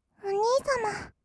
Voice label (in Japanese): お兄様, kawaii voice; ASMR; 二人称; 1200 kawaii voice!!